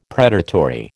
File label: "predatory", American